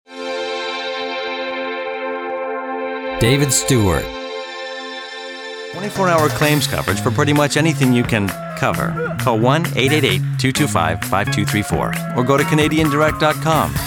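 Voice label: commercial, voiceovers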